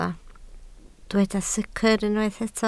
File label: "secr", Welsh